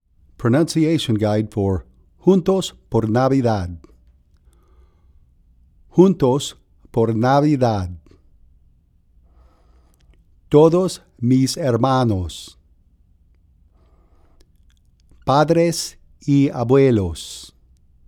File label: Pronunciation Guide